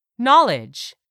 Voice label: "knowledge", English; 3000 words